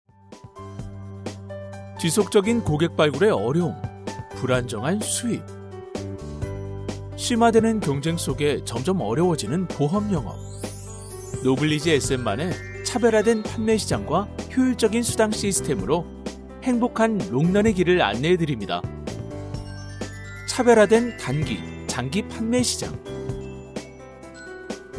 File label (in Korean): 홍보; KBS; 노블리지에셋